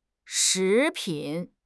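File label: "shi2 pin3", Chinese; 汉语口语新时代-初级下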